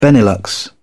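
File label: "benelux", English, British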